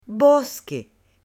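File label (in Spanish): "bosque", Spanish, female; LUGARES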